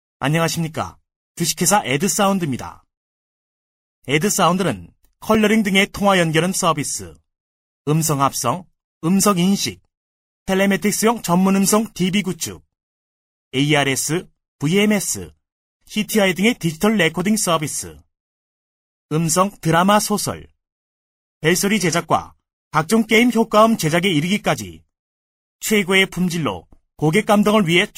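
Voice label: Korean male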